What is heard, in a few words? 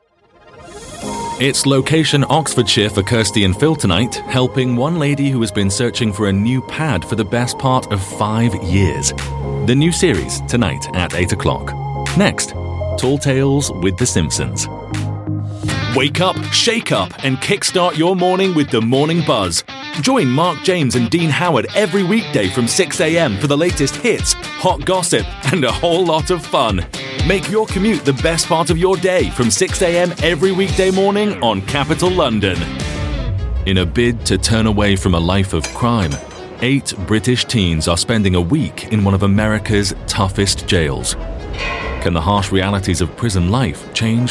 mid atlantic voice, continuity and radio